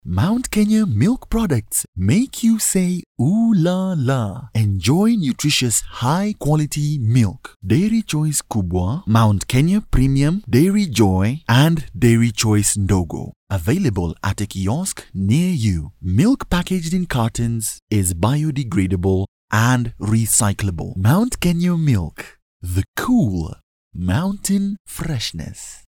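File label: African English M Commercial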